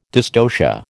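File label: "dystocia", American English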